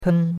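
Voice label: "pen1" Mandarin Chinese syllable, first tone; Cours-de-Chinois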